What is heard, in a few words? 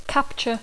"capture", English